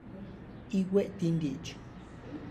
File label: we will talk later, Tlacochahuaya Zapotec; (Spanish) platicomos luego; igüetin ditx